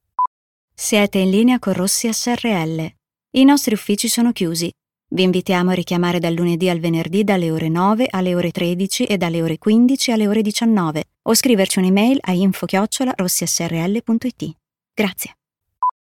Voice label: italian ivr